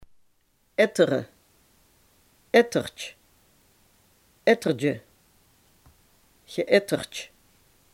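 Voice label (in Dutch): "etteren" Limburgish dialect word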